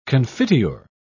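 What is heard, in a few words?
"confiteor", British English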